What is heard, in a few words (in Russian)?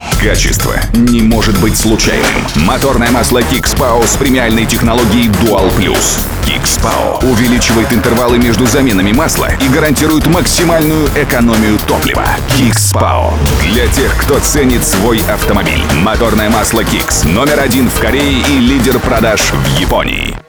informaczionnyj rolik motornoe maslo; kixx pao